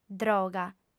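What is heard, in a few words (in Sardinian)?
"droga", female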